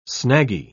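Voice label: "snaggy", British English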